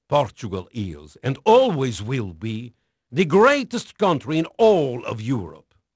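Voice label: Portuguese male, voice; Pirates Of The Caribbean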